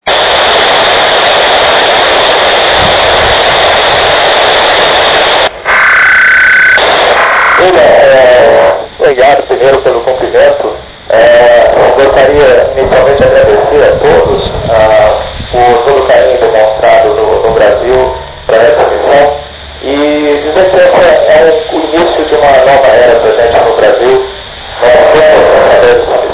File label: iss 143.625 mhz; brazil